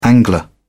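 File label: "angler", British English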